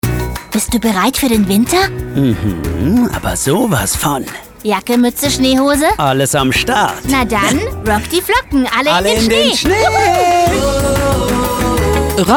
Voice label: Spots Szene; sprecher; frauen; ErnstingsFamily

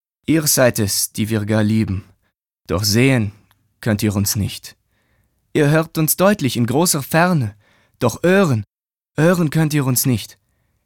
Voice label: Akzent franzoesisch, maenner; Charge+Akzente

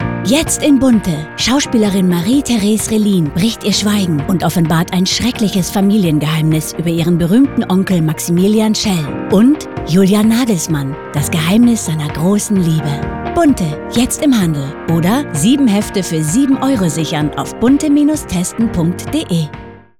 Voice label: OFF, frauen; Bunte

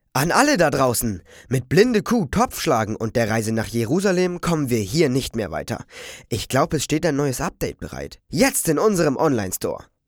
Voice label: Jungen

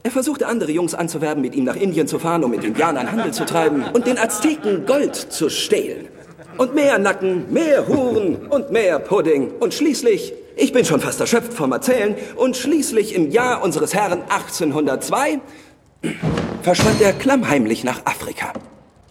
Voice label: Synchron, maenner; Taboo

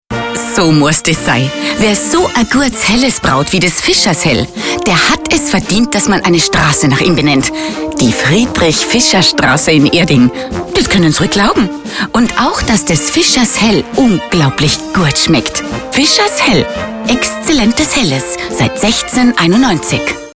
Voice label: Dialekt Akzent, frauen; sprecher; FischersHell

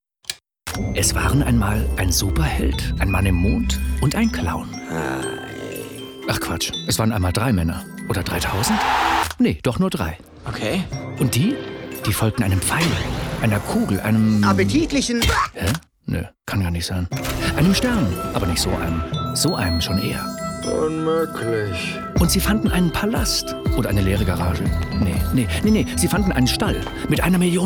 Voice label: Trailer, maenner; Maxdome; X-Mas-Story

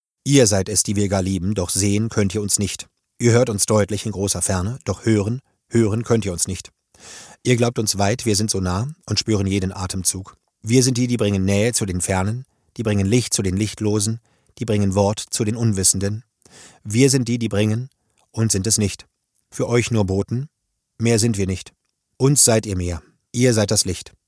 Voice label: sachlich, maenner

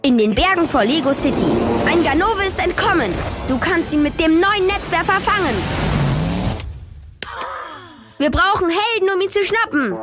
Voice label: Werbung; Lego City

